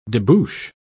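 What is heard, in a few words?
"debouch", British English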